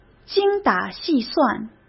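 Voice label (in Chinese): "精打细算", Mandarin; chinese-flashcards; hsk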